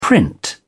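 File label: "print", British English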